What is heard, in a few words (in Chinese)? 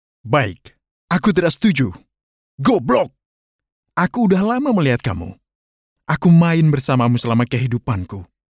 印尼语 男声 角色